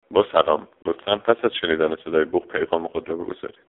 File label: voice answering machine man